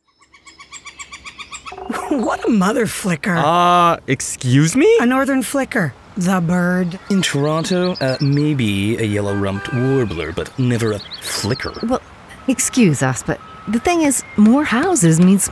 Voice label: Commercial; Shades of Hope